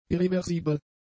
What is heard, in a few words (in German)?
"irreversibel", german; adjectives